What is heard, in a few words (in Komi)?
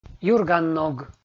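"юрганног" Komi, male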